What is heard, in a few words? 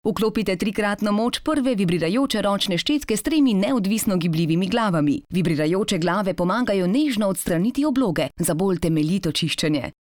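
slovenian female commercial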